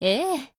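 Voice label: agree, Japanese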